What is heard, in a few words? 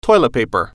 toilet paper, englishAudio